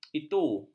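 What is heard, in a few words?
"itu", Malay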